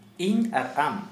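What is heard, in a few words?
me and you, Santali, male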